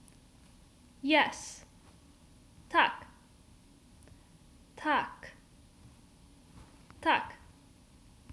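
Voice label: "Yes", Polish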